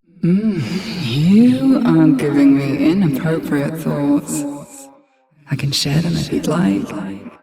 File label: Ambient Barks, en